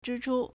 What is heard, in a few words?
"zhi1chu1", Chinese